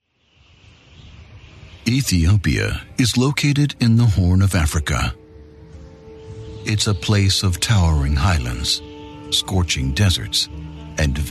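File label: US English, male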